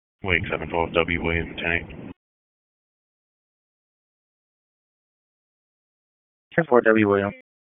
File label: WSO DISP 3, P25 recordings; wake